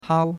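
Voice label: "hao", Chinese, first tone; Cours-de-Chinois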